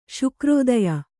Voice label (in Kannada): "ಶುಕ್ರೋದಯ", Kannada